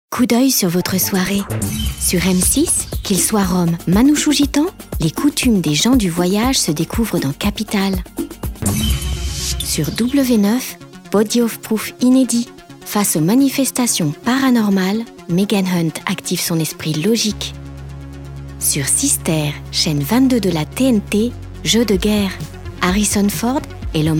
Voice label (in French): BANDE ANNONCE; M6